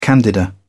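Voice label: "candida", British English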